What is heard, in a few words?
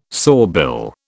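"sawbill", British English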